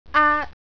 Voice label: "aat", Cantonese, jyutping